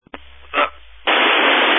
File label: Family Radio Service (FRS)